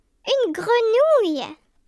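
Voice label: FROG, French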